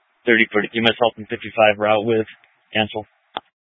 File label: Police Department Dispatch; Grand Junction